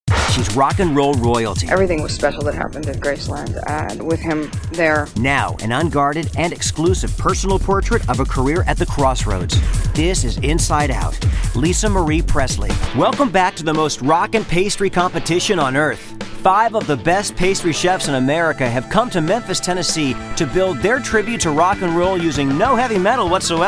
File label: narration